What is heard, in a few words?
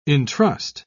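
"intrust", English, uk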